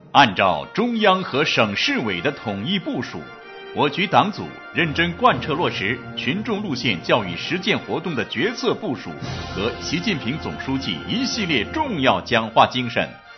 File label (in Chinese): 男 教育实践活动总结; 市安监局